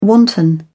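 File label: "wanton", English, British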